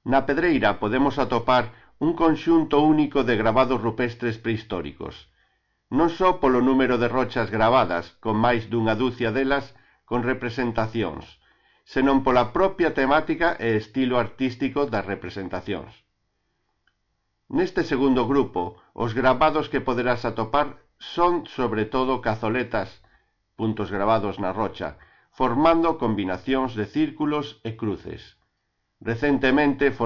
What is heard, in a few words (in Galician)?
galego; PEDREIRA2